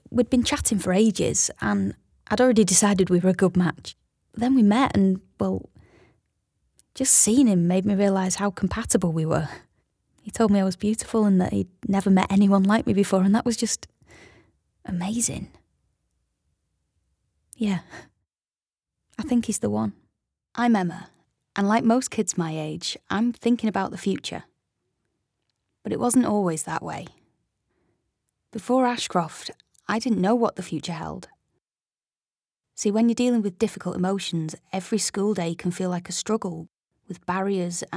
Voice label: Acting

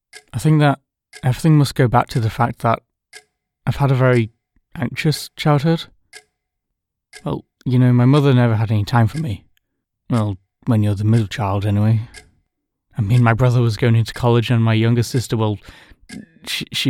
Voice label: character

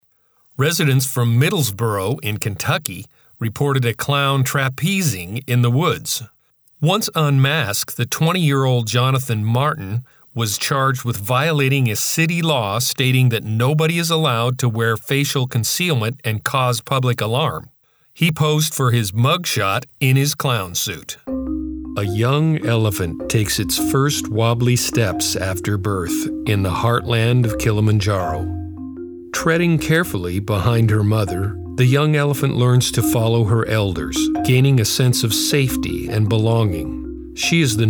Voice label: Narration Documentary

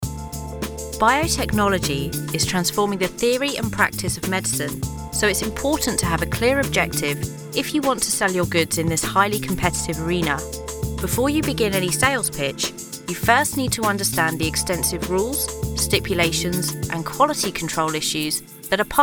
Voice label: Corporate

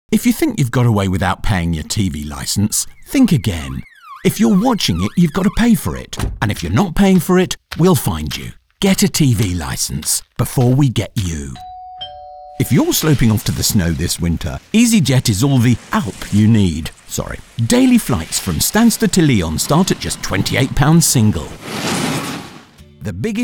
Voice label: commercial voice reel

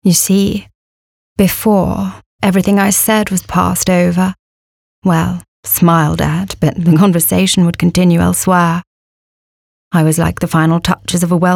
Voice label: Accent

